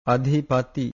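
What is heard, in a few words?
"adhipati", Pali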